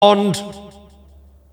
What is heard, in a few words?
announcer ball spawned; tf2cciv; open fortress